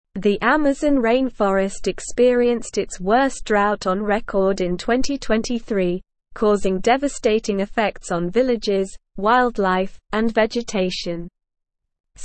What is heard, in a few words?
English Newsroom Advanced SLOW Reading; Amazon Rainforest Faces Tipping Point as Drought Worsens